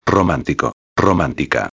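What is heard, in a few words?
"romantico a", Spanish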